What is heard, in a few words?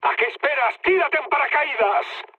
bailout, mood med, speech; War Thunder